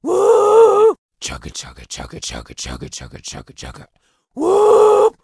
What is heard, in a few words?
Orc Female Choo Choo